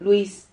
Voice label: "luis", Silabas

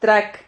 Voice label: "trac", Silabas

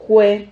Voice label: "jue", Silabas

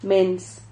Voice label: "mens", Silabas

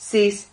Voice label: "sis", silabas

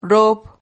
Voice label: "rob", Silabas

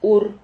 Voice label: "hur", Silabas

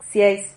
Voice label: "xiais", Silabas

